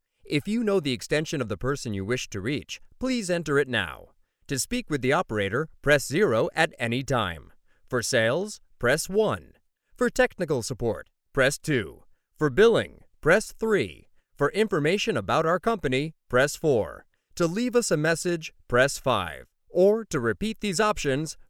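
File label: American English, male, voice over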